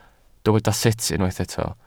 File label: "sut", Welsh